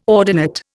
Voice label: "ordinate", Indian